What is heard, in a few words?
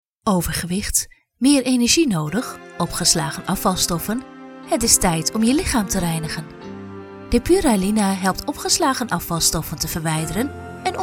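Dutch, female, commercial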